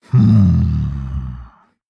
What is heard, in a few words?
Thinking